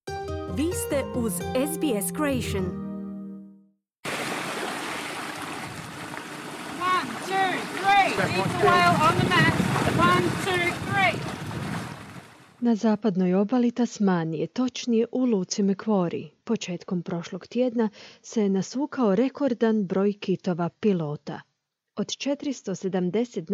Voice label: croatian

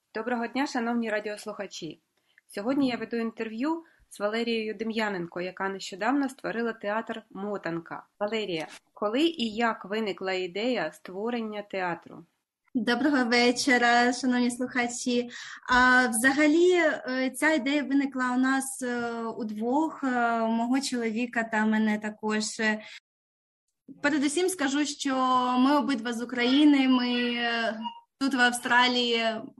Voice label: ukrainian; Українська "Мотанка" у Канберрі; SBS Ukrainian; В Канберрі з’явився новий український театр «МОТАНКА».

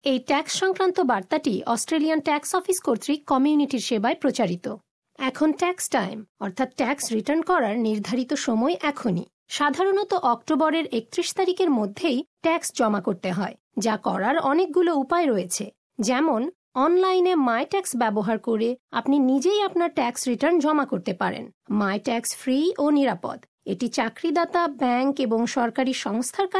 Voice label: bangla; SBS Bangla; ATO TAX TALK Sept 2016; Source: ATO

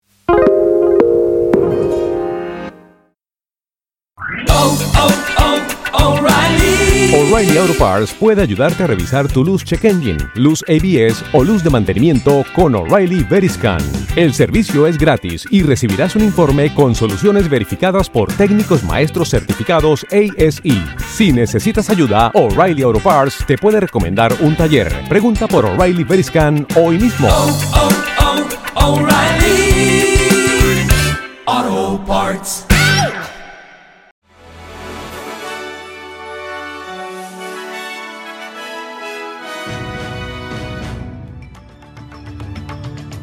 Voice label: gujarati newsflash; SBS Gujarati Australian update: 4 December 2025

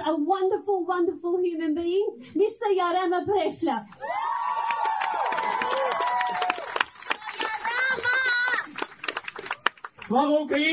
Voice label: ukrainian; SBS Ukrainian

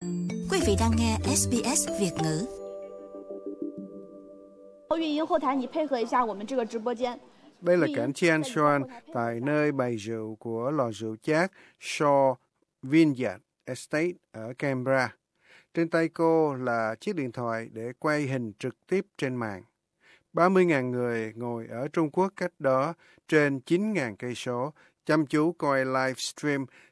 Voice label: vietnamese; Úc bắt đầu nhảy vào thị trường bán trực tiếp trên mạng của Trung Quốc; SBS Vietnamese

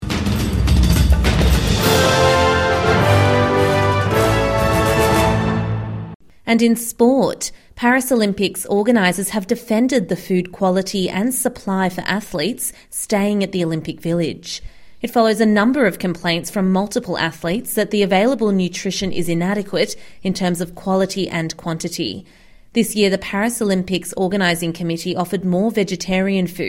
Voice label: english; Paris Olympics organisers defend food quality for athletes following numerous complaints; SBS News

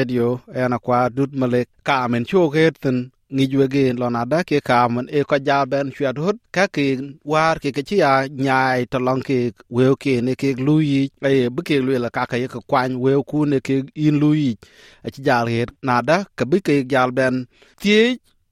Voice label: dinka, podcast; Cuɛt ɣot bi yïn dhuök wëukun ke ci nyiai wëu luɔi yic yeŋo ye wic?; SBS Dinka

